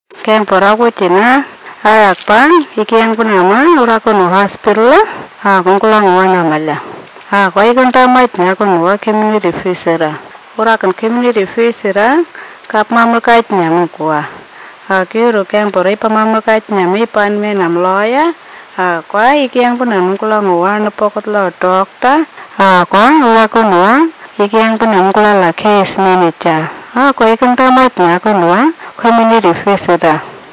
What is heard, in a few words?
Anindilyakwa